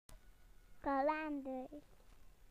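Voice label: "calendars", British English; PictureDictionary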